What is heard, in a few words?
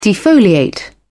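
"defoliate", British English, dictionary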